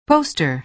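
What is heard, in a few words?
"poster", American English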